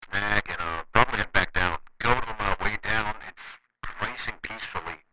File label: CB radio walkie talkie conversation loud distorted